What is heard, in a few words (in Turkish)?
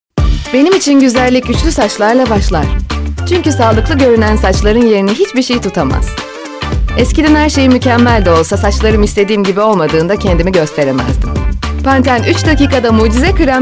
reklam; pantene